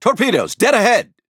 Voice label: Speech Alarms; torpedo ahead; World Of Warships